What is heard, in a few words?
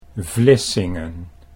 "Vlissingen", Dutch